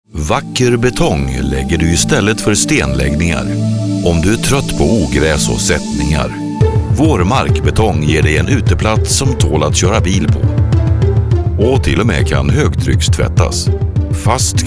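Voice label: Voice over men Swedish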